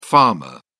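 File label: "pharma", English, British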